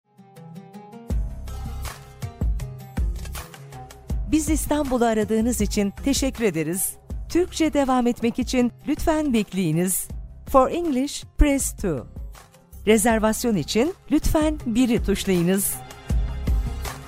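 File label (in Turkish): karşılama türkçe; biz istanbul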